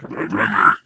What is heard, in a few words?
impatient